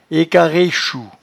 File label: "equarrechou", patois jura